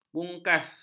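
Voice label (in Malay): "bungkas", Malay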